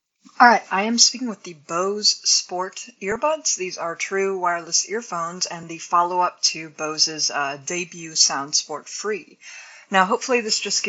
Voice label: Bose Sport Earbuds microphone